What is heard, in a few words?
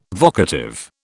"vocative", British